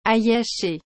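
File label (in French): "ail hache", French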